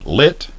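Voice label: "lit", English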